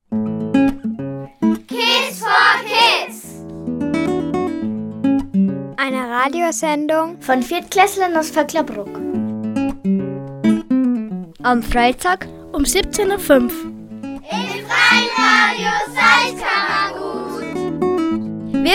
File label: TRAILER; Kids4Kids; Freies Radio Salzkammergut; Die Kinder der öffentlichen Volksschulen Vöcklabruck